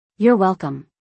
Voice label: You're welcome, English; Basic Greetings and Courtesy